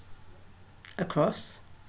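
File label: "across", British, female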